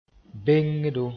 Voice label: "bengdo", Moore